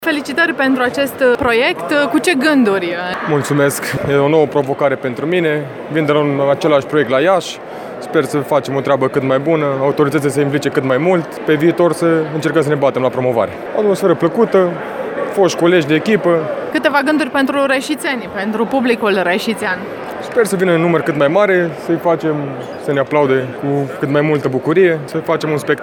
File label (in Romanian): vox handbalisti